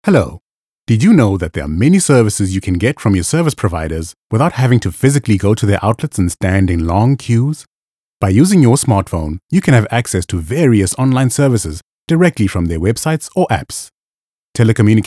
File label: Animation; ICASA